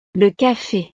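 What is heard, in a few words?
"le cafe", French; snacks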